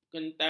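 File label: "kental", Malay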